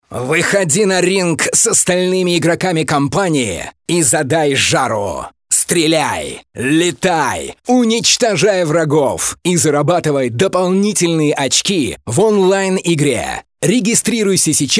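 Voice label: Russian male Rage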